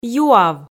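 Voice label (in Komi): "юав", Komi, female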